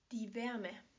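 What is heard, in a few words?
"Wärme", German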